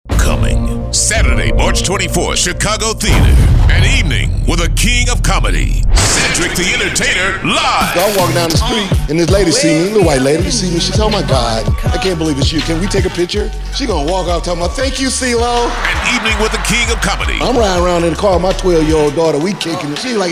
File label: VOICE OVER